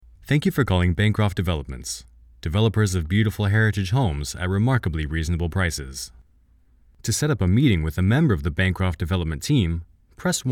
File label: IVR